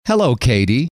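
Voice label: "hello", male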